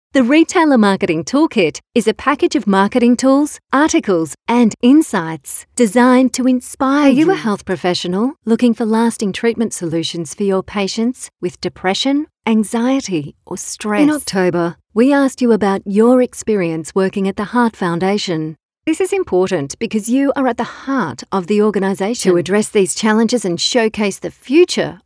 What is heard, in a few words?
Natural, voice overs